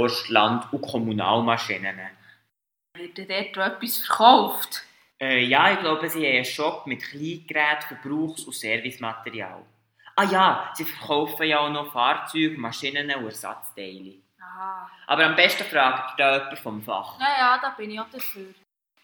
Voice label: Werbespot Landtechnik; Wuethrich Landtechnik; Muensingen

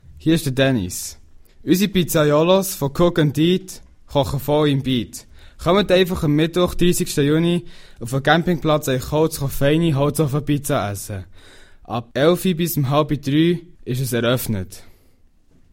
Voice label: Werbung; Cooltour 2014; Cook and Eat

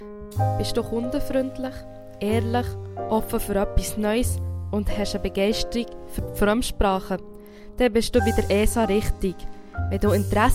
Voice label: Jingle; esa Burgdorf

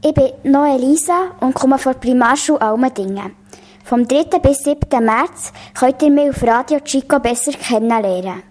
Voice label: Ankündigungsjingle

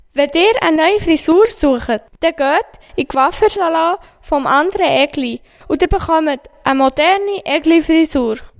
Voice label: Werbung; Coiffeur Egli; Golaten

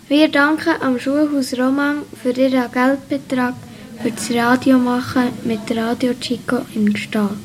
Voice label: Jingle - Schuhhaus; Schuhhaus Romang

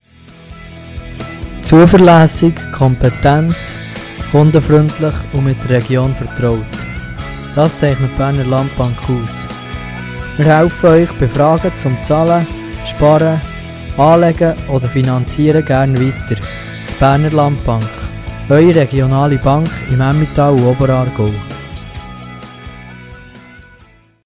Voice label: Jingle; Bernerland Bank

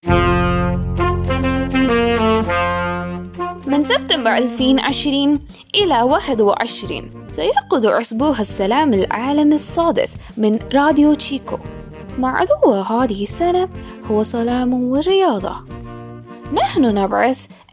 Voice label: jingle, arabisch

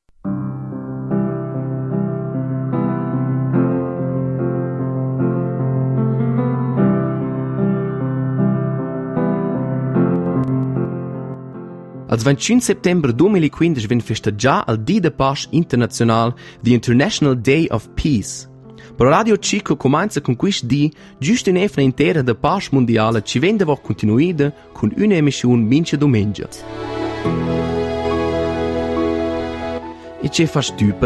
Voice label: raetoromanisch Jingle; Jingle Weltfriedenswoche Raetoromanisch

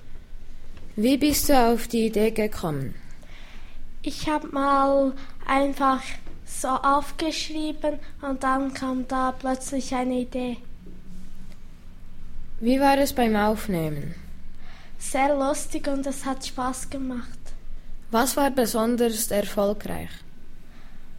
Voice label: Hörspiel

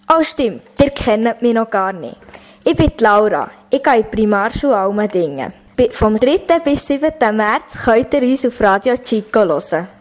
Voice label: Ankündigungsjingle

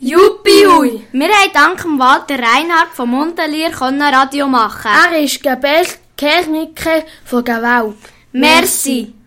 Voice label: Werbung; Golaten

